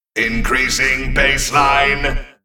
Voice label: Male Mech Attack